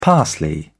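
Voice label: "parsley", British English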